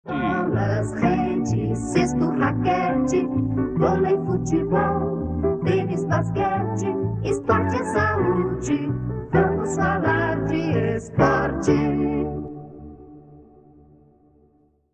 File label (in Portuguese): Esporte, radio; Radio Difusora SP